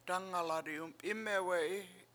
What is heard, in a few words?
"dAngaladi ump7Imewe7i" you come out of house, Washo